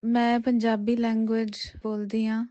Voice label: punjabi; POP UP Zagreb